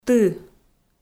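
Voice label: "ты", Komi, female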